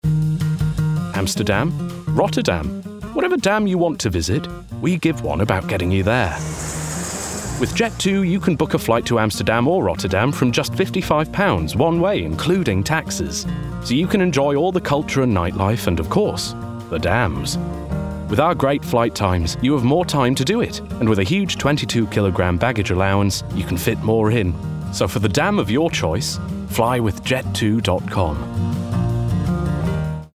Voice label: COMMERCIAL; Jet2

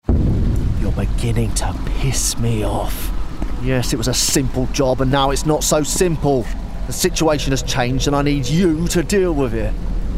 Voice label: Gaming Villain Cockney

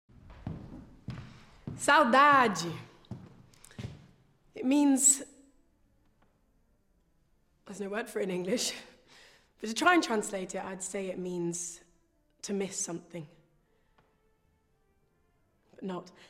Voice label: Monologue

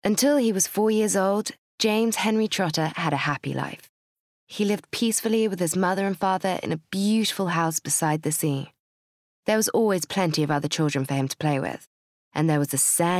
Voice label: Bookread

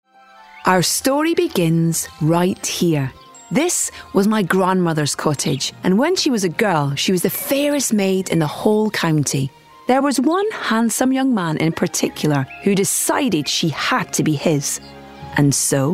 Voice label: Character Reel

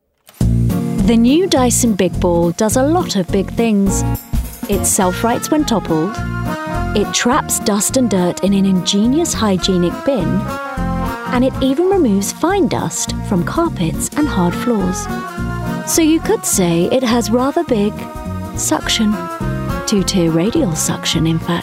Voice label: Commercial; Dyson

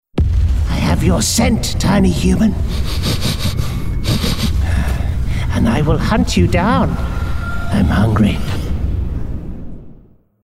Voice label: Gaming Monster; Gaming Character

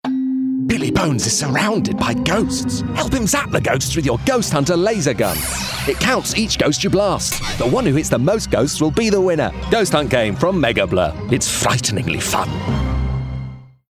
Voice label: Commercial; Ghost Hunt Game

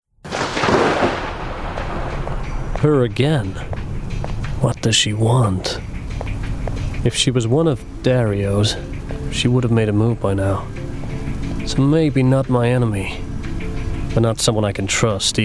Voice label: CHARACTER Detective US

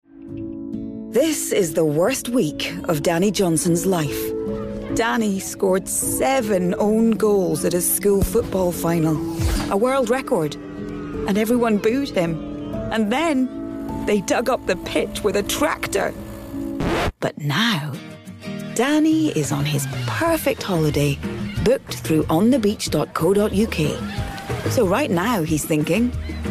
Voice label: Commercial On The Beach

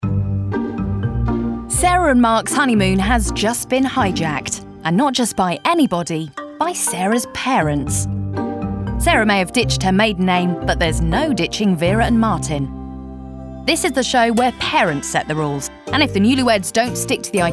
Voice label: Documentary

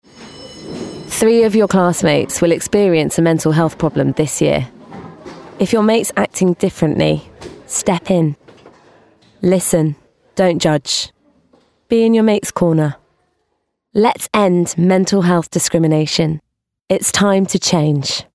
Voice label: Commercial; Time to Change

